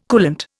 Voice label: "coolant", Indian

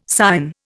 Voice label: "Sion", Indian

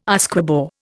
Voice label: "Usquebaugh", Indian

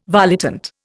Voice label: "volitant", Indian

